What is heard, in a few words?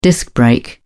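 "disc brake", British English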